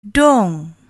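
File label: "dong", Malay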